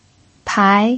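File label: "pai", second tone, Mandarin Chinese pinyin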